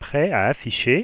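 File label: ready to display, French; Samsung SGH-i310; Voice Sounds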